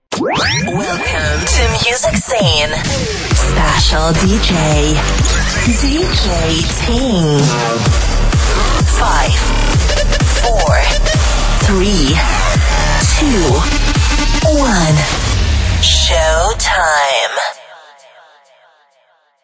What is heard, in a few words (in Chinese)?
女声 - Intro